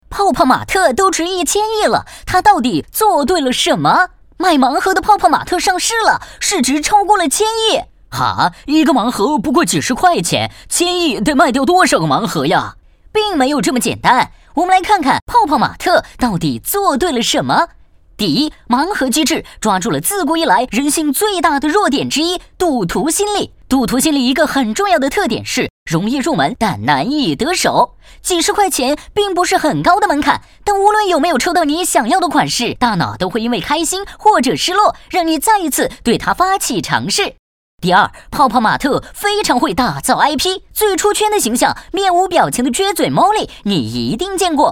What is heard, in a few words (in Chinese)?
男 杂物百科; 泡泡玛特